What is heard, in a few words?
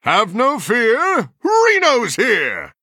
Male Human Play